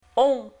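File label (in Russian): [õ] носовое, French; Phonetique; Voyelles; Lingvo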